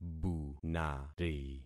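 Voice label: "bunari", concatenated syllables; Affixing experiment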